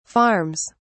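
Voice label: "farms", British English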